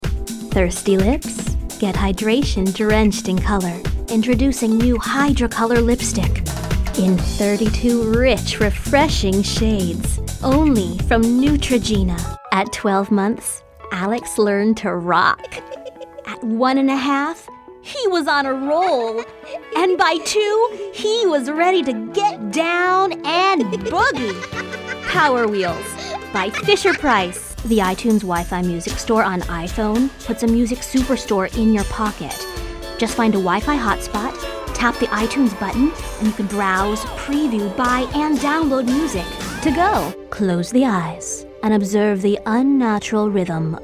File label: Commercial